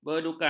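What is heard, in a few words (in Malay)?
"bedukang", Malay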